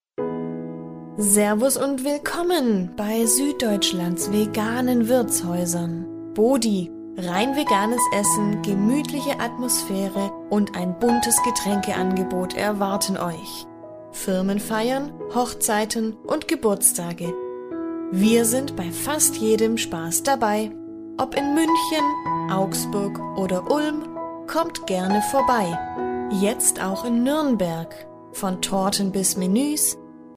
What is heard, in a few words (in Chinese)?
德语女声